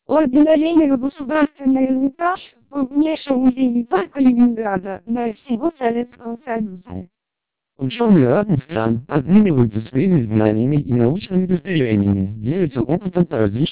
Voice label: twelp 300 ru short, speechsamples